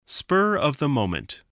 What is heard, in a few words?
"spur-of-the-moment", voice